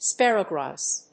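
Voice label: "sparrowgrass", English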